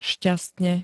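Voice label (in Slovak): "šťastne", Slovak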